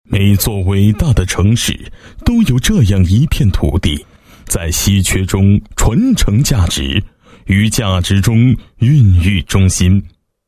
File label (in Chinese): 男 房地产专题 宣传; 宇成朝阳广场